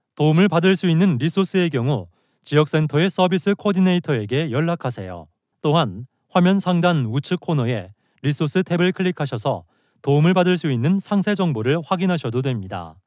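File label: Korean elearning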